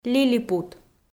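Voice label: "лилипут", Komi, female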